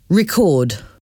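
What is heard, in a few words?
"record", English, verb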